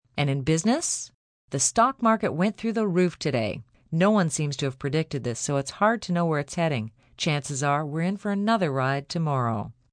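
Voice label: News Stocks F, Broadcast, news, female news item on business, stock market; female (2c) news item on business, stock market through the roof. (human voice speaking)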